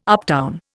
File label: "uptown", Indian